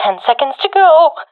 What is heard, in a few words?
ten seconds remaining, professional fem; vo; Counter Strike Model Pack; CS:GO